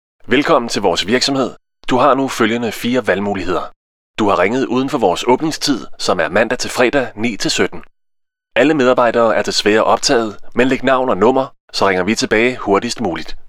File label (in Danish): Mand neutral; danske professionelle indtalinger